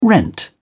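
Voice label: "rent", English, British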